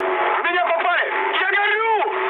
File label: on fire, mood high, speech ru; War Thunder